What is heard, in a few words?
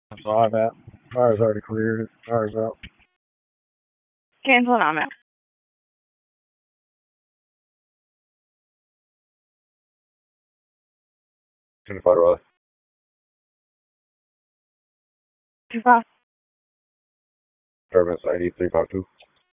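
Police Northeast District Disp, radio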